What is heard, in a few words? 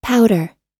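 "powder", English; oxford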